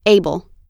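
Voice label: "able", American English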